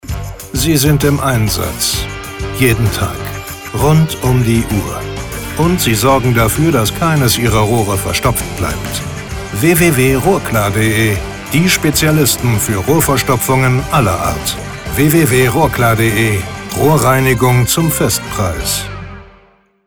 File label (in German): radiospot; rohrklar